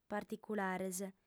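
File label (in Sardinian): "particulares", female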